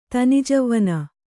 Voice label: "ತಿಣ್ಣಜವ್ವನ", Kannada

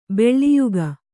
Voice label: "ಬಲಿಯಿಕ್ಕು", Kannada

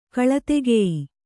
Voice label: "ಕಳತೆಗೆಯಿ", Kannada

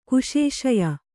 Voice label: "ಕುಶೇಶಯ", Kannada; kuśeśaya; noun

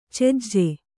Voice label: "ಚೆಜ್ಜ", Kannada

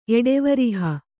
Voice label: "ಎಡೆವರಿಹ", kannada

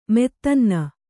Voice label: "ಮೆತ್ತನ್ನ", Kannada

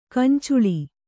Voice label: "ಗೊಂಚಲ್", Kannada

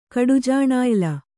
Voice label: "ಕಡುಜಾಣಾಯ್ಲ", Kannada

